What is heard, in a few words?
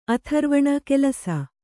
"ಆಥರ್ವಣ", Kannada